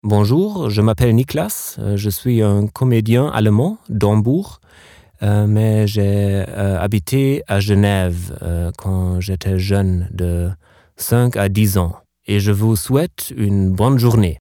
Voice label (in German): Sprecherdemo französisch